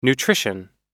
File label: "nutrition", us